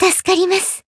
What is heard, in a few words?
healed jp; tsukiha